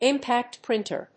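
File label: "impact printer", English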